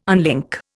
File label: "unlink", Indian